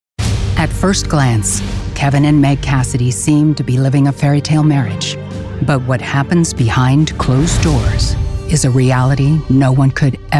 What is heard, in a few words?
TV In Show Narration Demo